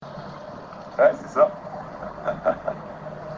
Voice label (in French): "c'est ça" ahaha